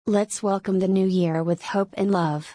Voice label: "Lets welcome the New Year with hope and love", English